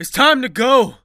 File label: Escape, Human Male; zps; HumanMale-01